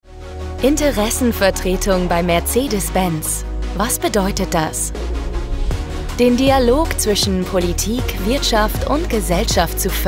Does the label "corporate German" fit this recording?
Yes